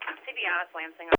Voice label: Radio; Lansing